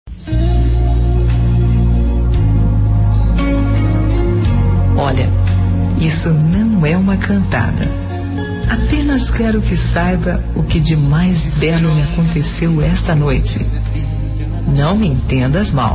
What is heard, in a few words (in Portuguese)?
Conquista para homem, F; Declaração; Fem